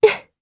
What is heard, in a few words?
Vox Happy, jp; voices heroes jp; Erze; kingsraid